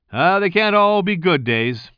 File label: commentator